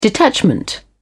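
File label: "detachment", British English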